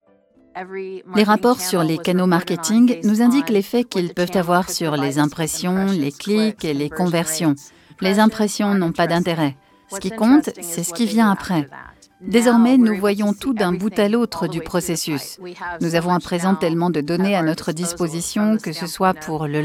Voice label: voice over voix off; adobe

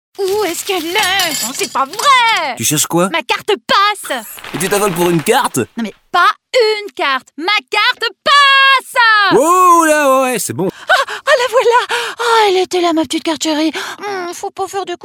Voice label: pub hysterique voix off femme; voix off folle; showreel

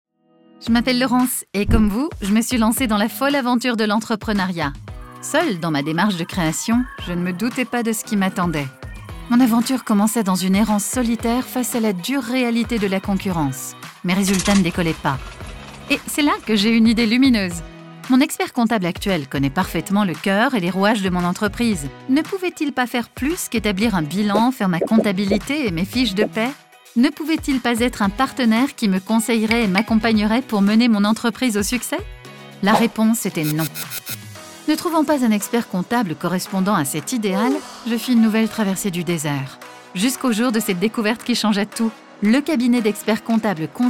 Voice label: motion design audit consulting voix off femme; consulting groupe

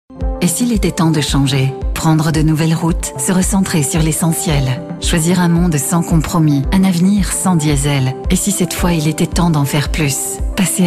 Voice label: pub voix off; lexus

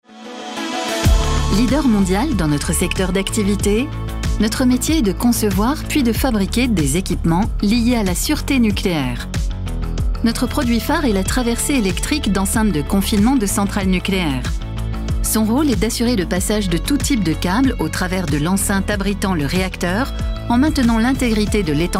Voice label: film video institutionnel; mirion technologies

